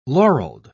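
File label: "laureled", British English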